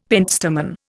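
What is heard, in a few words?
"pentstemon", Indian English; Dictionary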